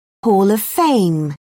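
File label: "hall of fame", British English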